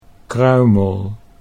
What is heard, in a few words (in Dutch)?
"kruimel", Dutch